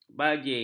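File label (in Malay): "bajing", Malay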